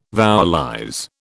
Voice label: "vowelize", British

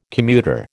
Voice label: "commuter", American

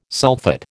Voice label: "sulphate", American

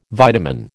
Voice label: "vitamin", American

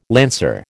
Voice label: "lancer", American

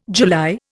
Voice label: "July", Indian

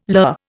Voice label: "lur", Indian

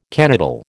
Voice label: "canoodle", American

